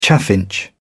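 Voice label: "chaffinch", British English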